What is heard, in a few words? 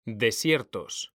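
"DESIERTOS", Spanish; mekanta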